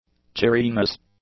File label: "cheeriness", English